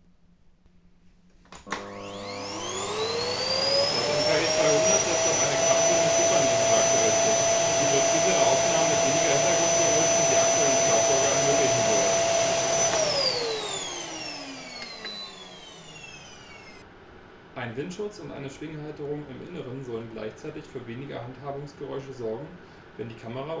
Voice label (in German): Aufnahme Internes Mikrofon Xiaomi Mi Note 10